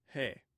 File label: "he", Omaha